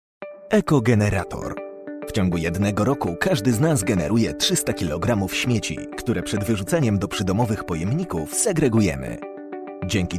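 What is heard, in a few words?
Corporate voiceover Polish; PL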